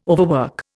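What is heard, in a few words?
"overwork", Indian English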